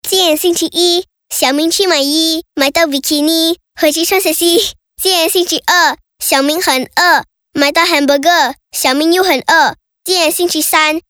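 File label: Mandarin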